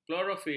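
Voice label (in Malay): "klorofil", Malay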